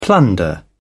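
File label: "plunder", British English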